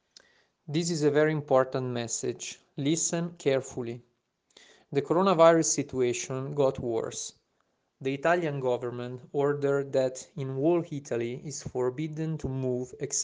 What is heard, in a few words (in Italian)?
Inglese; Intesa